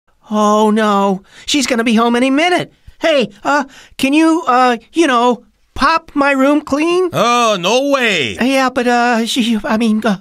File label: animation